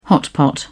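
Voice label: "hotpot", British English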